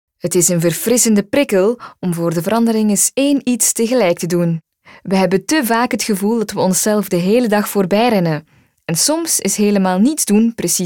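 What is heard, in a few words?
Belgian, female, corporate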